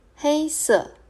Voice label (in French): "Noir" Mandarin